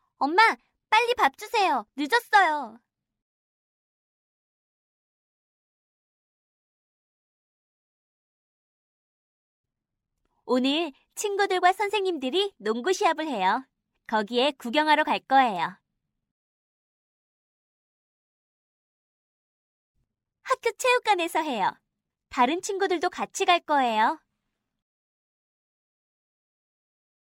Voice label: mom english